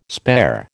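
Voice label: "spare", American English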